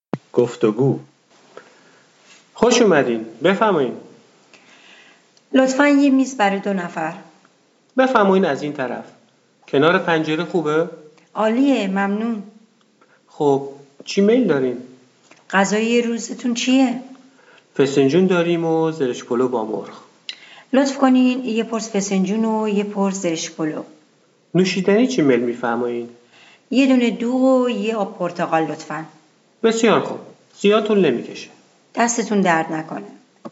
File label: Dialog, persian